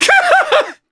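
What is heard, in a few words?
Vox Happy, Japanese; Baudouin; kingsraid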